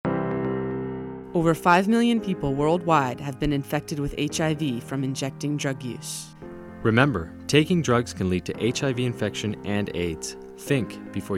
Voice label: spot usa music